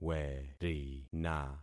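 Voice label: "werina", concatenated syllables; Affixing experiment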